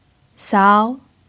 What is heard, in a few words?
"sao2", pinyin